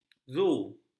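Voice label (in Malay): "zoo", Malay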